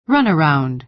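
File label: "runaround", American English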